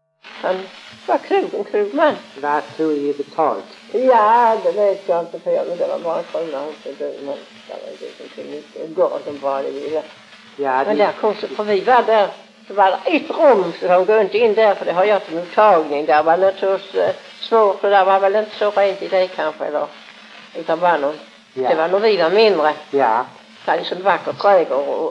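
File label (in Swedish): Lunds stad Skåne, dialekter; Folkminnen; Svenska sagor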